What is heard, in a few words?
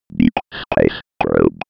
computer voice